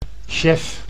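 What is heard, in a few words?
"chef", Dutch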